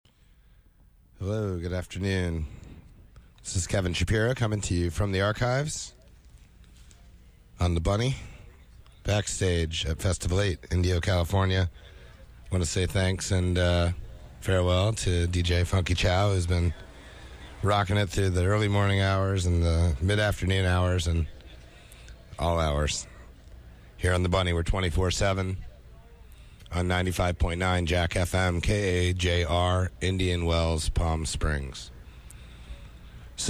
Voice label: radio banter; Festival 8